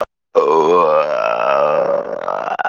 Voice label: voice message